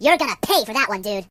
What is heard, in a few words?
"You'll regret that", Surfer voice; hedgewars